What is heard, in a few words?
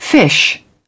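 "fish", British English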